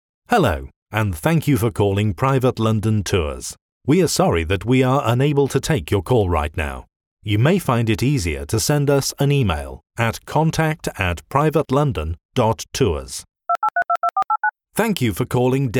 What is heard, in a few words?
voicemail